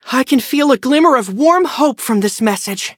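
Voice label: level up, English; cookie run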